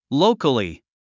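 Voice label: "locally", English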